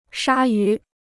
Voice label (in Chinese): "鲨鱼", Chinese